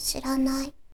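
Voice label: "siranai", Japanese, girl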